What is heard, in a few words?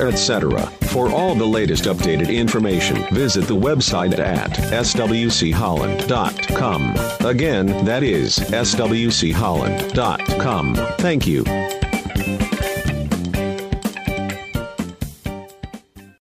PROMO BBQ PARTY male; SWCH